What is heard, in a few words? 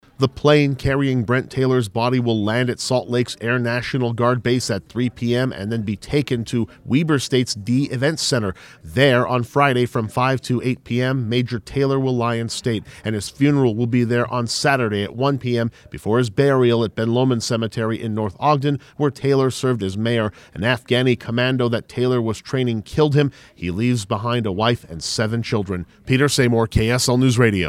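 voice public service announcement; TAYLOR RETURN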